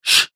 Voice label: "shh", male; Whispering; Voice